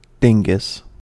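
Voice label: "dingus", US English; pronunciations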